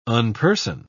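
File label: "unperson", British English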